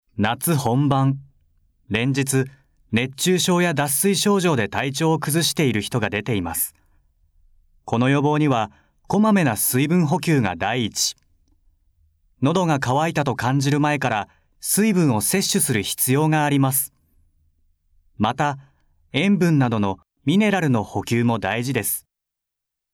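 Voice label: narration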